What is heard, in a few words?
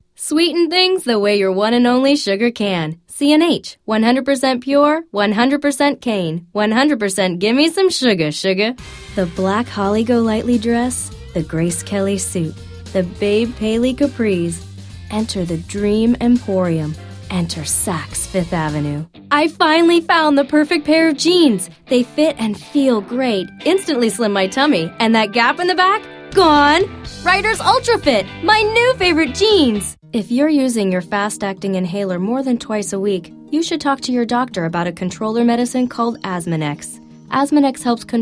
Commercial